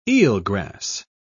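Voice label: "eelgrass", British English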